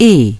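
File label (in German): "E geschlossen", German; DISTEFORA Navigation; Mobile Navigator Notebook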